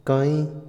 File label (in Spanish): bajar, Otomí, hombre; INALI